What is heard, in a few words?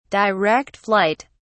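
"direct flight", British English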